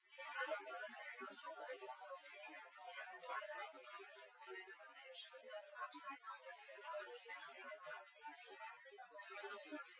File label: female; SiSEC08; SiSEC underdetermined